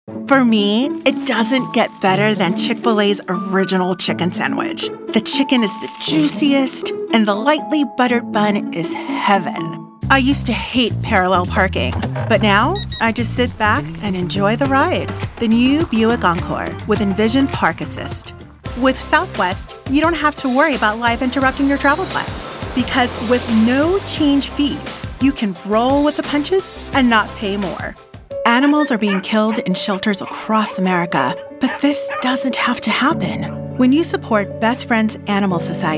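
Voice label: Commercial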